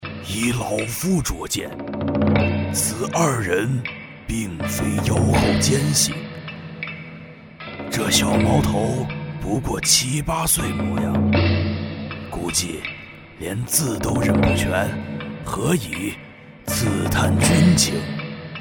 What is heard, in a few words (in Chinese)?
角色 老年忠臣